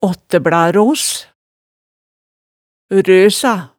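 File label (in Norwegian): "aattæblaros", Hemsedal dialect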